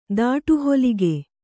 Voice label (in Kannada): "ದಾಟುಹೊಲಿಗೆ", Kannada